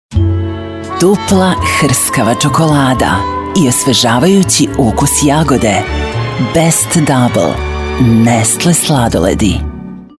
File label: Radio Commercials, Serbian, Female Voice; sladoledi; Nestle